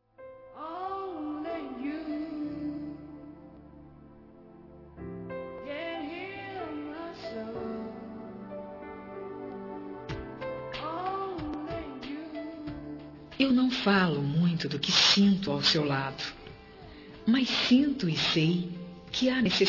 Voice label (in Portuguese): Romântica Com Declaração, F